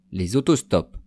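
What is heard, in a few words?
"les auto stops", French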